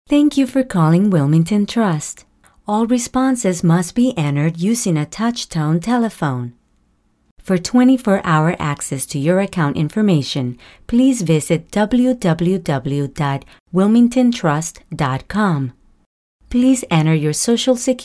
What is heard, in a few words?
English; Wilmington Trust